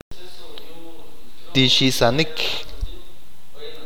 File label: "spill", achi